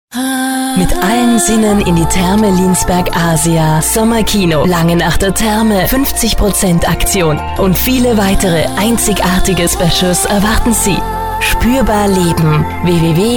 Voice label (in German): Sprecher Showreel